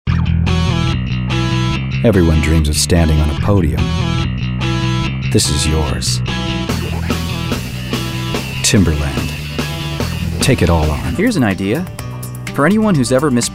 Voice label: Commercial